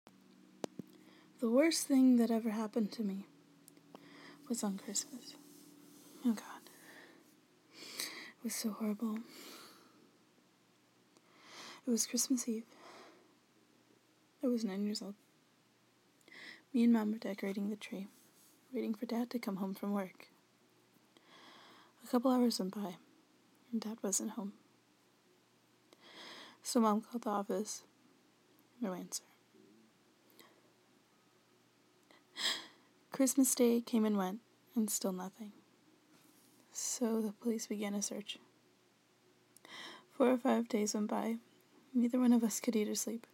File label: movie monologue; Worst Christmas (Gremlins)